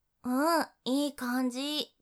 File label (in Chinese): 嗯，不错ー, 女生 少女